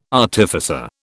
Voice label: "artificer", British

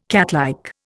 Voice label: "catlike", Indian

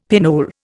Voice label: "pinole", Indian; Dictionary

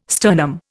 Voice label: "sternum", Indian

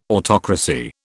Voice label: "autocracy", British

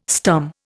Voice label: "stum", Indian

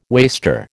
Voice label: "waster", American

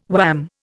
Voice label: "wham", Indian

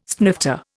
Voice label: "snifter", Indian

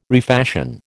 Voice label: "refashion", American

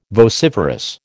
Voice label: "vociferous", American

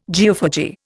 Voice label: "geophagy", Indian

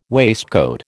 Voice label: "waistcoat", American

